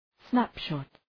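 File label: "snapshot", English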